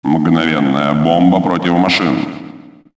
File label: Veh Bomb, vocals ru